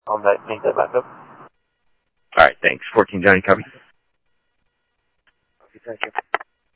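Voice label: Phoenix police department, scanner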